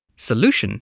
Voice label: "solution", English voice

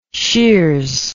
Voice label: "sheers", words

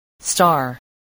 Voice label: "star", voice

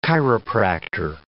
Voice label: "chiropractor", English

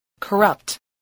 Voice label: "corrupt", voice spelling

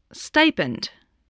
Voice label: "stipend", voice

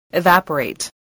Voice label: "evaporate", voice, english

